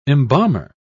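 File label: "embalmer", British English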